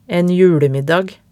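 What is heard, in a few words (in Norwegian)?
"en julemiddag", Norwegian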